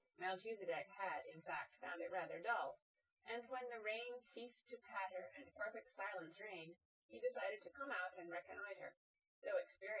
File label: female synthconv 130ms 1m; SiSEC08; SiSEC underdetermined